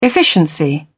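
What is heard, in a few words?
"efficiency", British English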